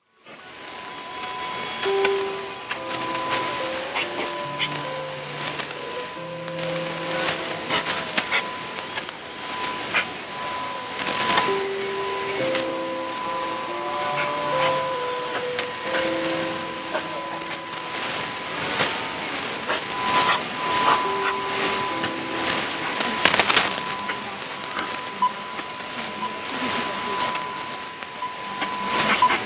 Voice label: 3325kHz; RRI-Palangkaraya